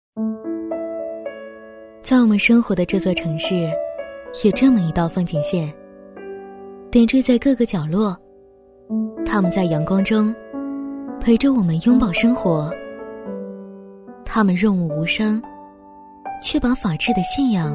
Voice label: 女, 独白, 城市旁白, 年轻向上

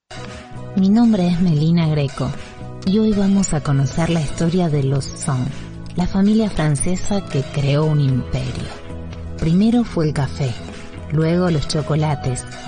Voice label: 西班牙女声

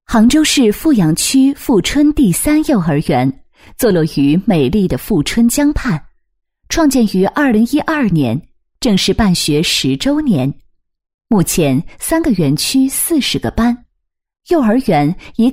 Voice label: 女 学校宣传; 大气知性; 成熟知性; 杭州富春幼儿园

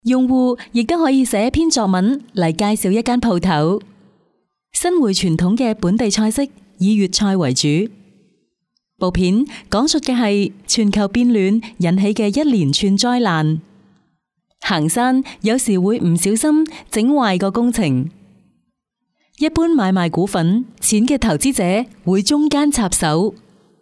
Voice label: 女粤-专题配音

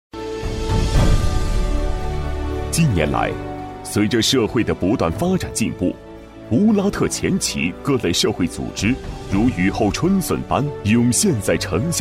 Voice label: 男 党建专题 大气激昂; 大气主流; 大气浑厚

